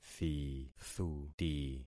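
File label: "thithuti", concatenated syllables; Affixing experiment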